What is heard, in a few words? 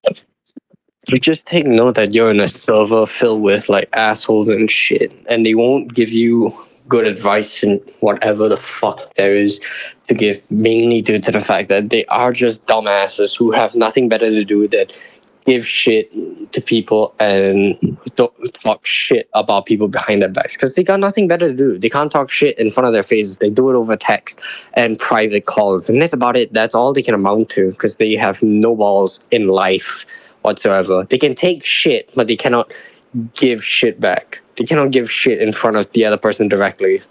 voice message